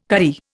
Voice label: "karri", Indian